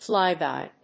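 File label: "flyby", English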